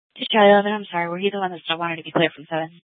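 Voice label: police dispatch radio, scanner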